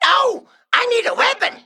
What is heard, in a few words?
Male Mech Emote error need weapon